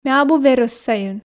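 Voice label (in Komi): ме абу верӧс сайын, Komi, female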